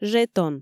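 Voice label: "жетон", Komi, female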